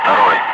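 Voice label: Russian calm; Ka-50; Wingman; DCS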